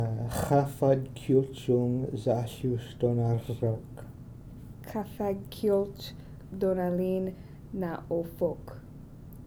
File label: Fosk formal greeting agemate